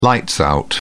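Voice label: "lights out", English, British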